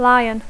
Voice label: "lion", English; lleó